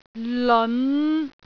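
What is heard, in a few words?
"leon5", Cantonese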